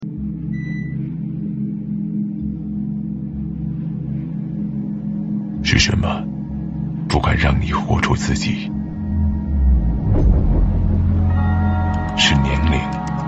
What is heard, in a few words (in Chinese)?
国语男 形象片 科技 宇宙